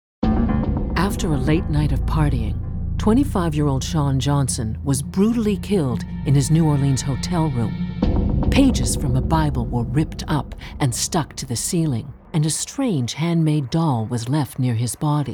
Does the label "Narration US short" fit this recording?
Yes